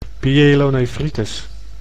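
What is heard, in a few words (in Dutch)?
"pyelonephritis", Dutch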